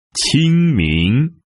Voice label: "Qingming", Chinese